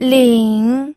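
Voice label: "ling", third tone, pinyin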